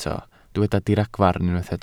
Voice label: "diragfarn" Welsh

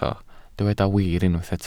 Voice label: "wir" Welsh

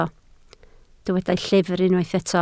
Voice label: "llyfr", Welsh

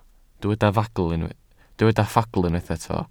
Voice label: "ffagl" Welsh

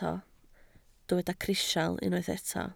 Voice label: "crisial", Welsh

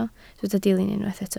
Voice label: "dilyn" Welsh

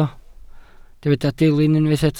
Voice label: "dilyn" Welsh

